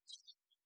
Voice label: "no", long; Voice; expletives

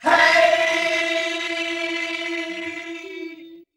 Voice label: "hey", Voice

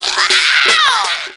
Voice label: "wow" fake; ooh ahh

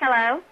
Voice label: "hello" girl; expletives

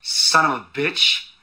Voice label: son of a bitch male; Voice

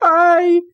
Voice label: "ay", voice

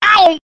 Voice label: "ay" cartoon

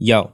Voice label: "yo", voice

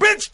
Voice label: "bitch", expletives

